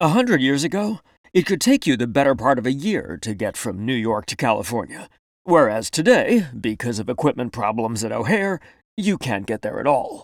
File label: Standard American; ACCENTS & DIALECTS